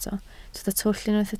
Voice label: "twll", Welsh

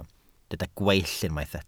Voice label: "gweill", Welsh

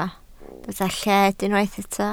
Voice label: "lled", Welsh

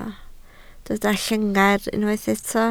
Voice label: "llengar", Welsh

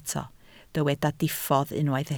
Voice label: "diffodd", Welsh

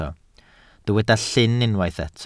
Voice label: "llyn", Welsh

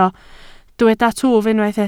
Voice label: "twf", Welsh

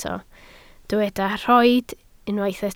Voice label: "rhoid", Welsh

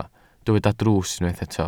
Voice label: "drws", Welsh

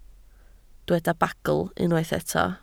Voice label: "bagl", Welsh

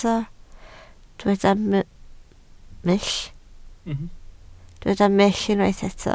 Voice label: "mull", Welsh

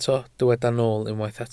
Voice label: "nool", Welsh

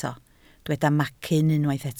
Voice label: "macyn", Welsh

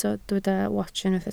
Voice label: "wats", Welsh

